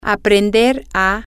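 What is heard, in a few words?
to learn to, spanish, female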